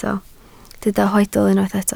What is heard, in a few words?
"hoedl", Welsh